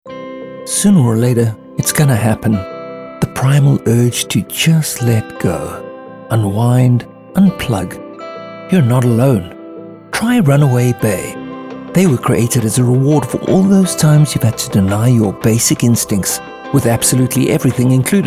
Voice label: Radio Ad; Runaway Bay